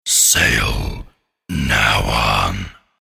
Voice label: Sale Now On Spoken, The phrase ‘Sale Now On’ spoken in deep male voice.; Recorded Voice; Sound Effects:Voice